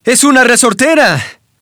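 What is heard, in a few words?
waterballoon welcome voice, Spanish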